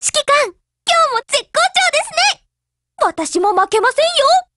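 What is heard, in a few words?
hello, Japanese; GF Alarm; Carcano1891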